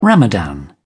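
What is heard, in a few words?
"ramadan", British English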